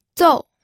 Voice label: "zou4", pinyin